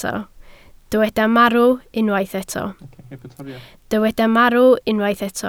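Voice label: "marw" Welsh, spoken word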